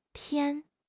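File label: "pian1", Mandarin Chinese; HSK4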